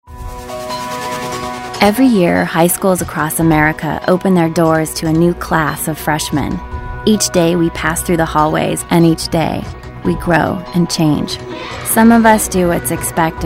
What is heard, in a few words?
narration women